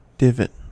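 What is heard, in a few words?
"divot", American English; pronunciations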